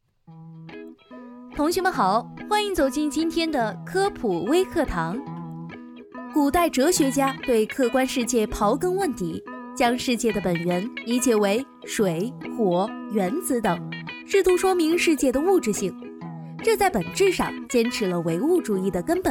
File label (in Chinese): 机智轻快